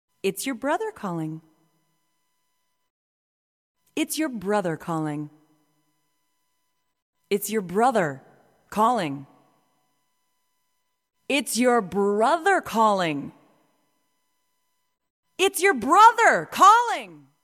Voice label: Female Talking Ringtones